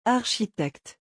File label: "architecte", French